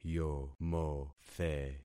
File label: "yomothe", concat syllables; Affixing experiment